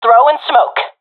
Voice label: throwing smoke, professional fem; vo; Counter Strike Model Pack; CS:GO

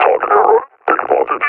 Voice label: taking fire, combine officer; Half Life Alyx; Half Life Model Pack

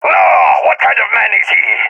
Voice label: sees friend killed, balkan; balkan epic

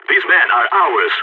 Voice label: prevent escape brag, balkan

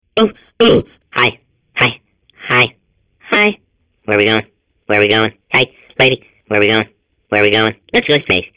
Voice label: babble; Portal 2

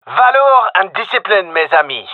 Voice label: round start, gendarmerie male

